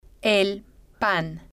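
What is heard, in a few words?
"el pan", Spanish, female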